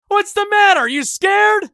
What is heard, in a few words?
battle cry; eft; usec